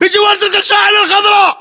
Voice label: landmark near vangreen, localized english battlechatter